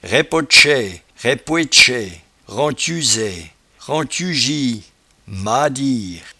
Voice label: "raippotchaie", patois jura

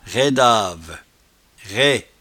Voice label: "raidave", patois jura

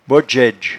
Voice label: "bodgeaidge", patois jura

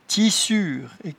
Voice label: "tchissure", patois jura

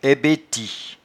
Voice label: "aibeti", patois jura

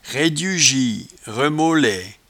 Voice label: "raidiujieremolaie", patois jura

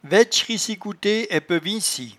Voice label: "vaitchriecicoute", patois jura

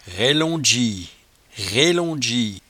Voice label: "raillongie", patois jura

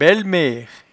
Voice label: "bellemere", patois jura

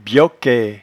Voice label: "bioquaie", patois jura

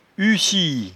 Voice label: "hussie", patois jura

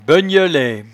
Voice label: "begnelaie", patois jura

